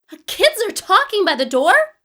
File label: surprised